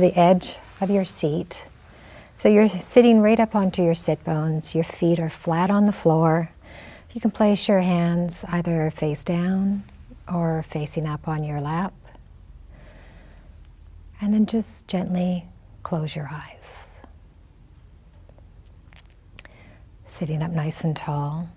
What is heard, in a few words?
guided breathing meditation